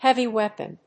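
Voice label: "heavy weapon", English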